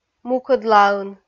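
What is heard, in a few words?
"мукӧдлаын" Komi, female; kpv audio dict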